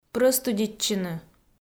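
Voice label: "прӧстудитчыны", Komi, female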